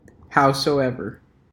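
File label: "howsoever", US English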